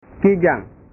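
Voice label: "kijang", Indonesian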